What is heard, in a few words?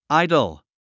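"idle", English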